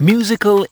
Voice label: LoFi Words, LoFi Vocals

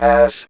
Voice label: Vocoder Words; Vengeance Samples

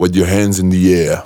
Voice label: Phrase Pitched; Vengeance Samples

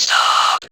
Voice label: Vocoder Words; Vengeance Samples

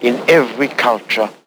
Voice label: LoFi Phrases, LoFi Vocals; Vengeance Samples

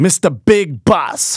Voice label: Phrase Male Rap; Vengeance Samples

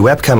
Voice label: LoFi Words, LoFi Vocals

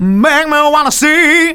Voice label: Phrase Male; Vengeance Samples

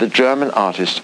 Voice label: LoFi Phrases, LoFi Vocals; Vengeance Samples

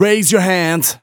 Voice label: Phrase Male Rap; Vengeance Samples

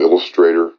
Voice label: LoFi Words, LoFi Vocals

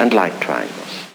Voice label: LoFi Phrases, LoFi Vocals; Vengeance Samples

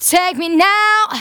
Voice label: Phrase Female; Vengeance Samples; Phrases

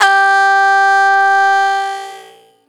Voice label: Phrase Female; Vengeance Samples; Phrases

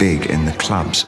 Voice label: LoFi Phrases, LoFi Vocals; Vengeance Samples

